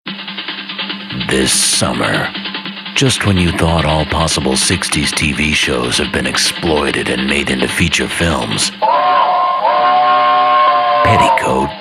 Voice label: men trailers

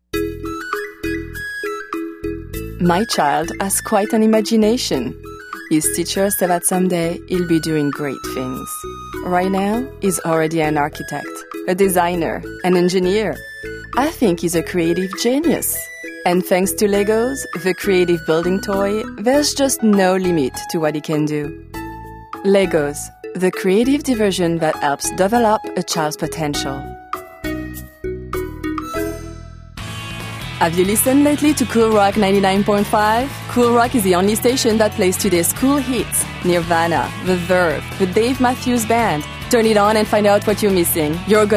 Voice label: french euro accented english

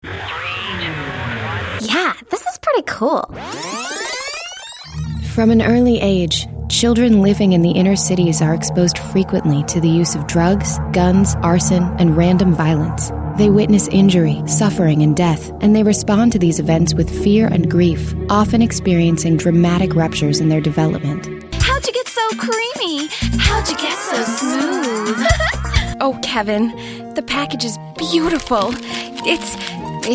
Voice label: women commercial

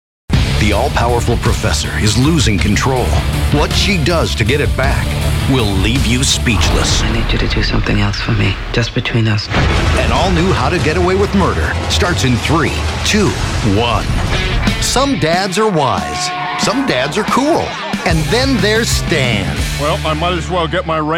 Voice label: men promos

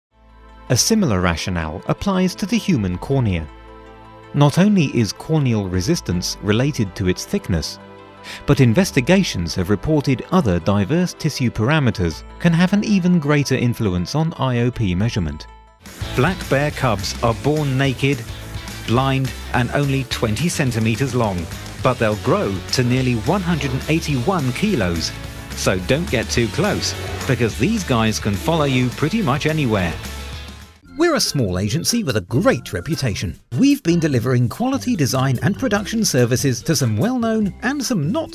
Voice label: british narration

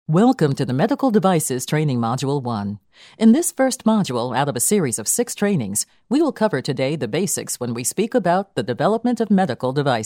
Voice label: women elearning

